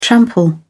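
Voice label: "trample", British English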